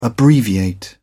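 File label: "abbreviate", British English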